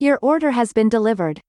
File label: Delivery Drone VO Common